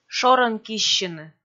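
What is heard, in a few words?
"шорӧн киссьыны", Komi, female; kpv audio dict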